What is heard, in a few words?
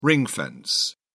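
"ring fence", British English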